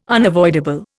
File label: "unavoidable", Indian